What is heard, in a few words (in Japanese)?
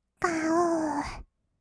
"がおー", kawaii voice; ASMR; 色々なワンショット